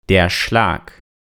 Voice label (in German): "der Schlag", German